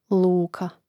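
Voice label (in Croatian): "luka", Croatian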